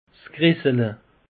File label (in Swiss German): "gressele", alsace; peche